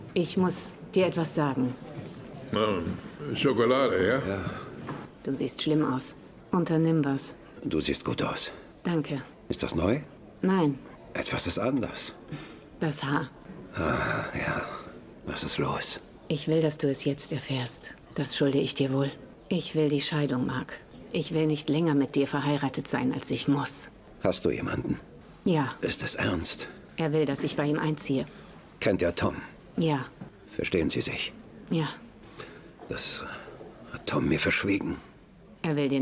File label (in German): Synchron; zögerlich & distanziert & verletzt